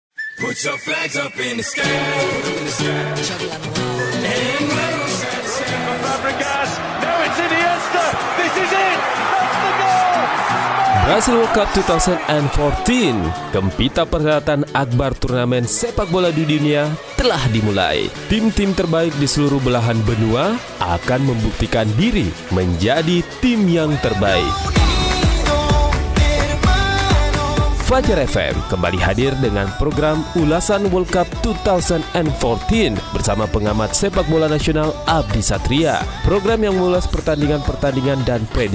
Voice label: promo; PIALA DUNIA 2014